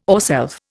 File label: "ourself", Indian